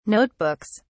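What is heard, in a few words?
"notebooks", British English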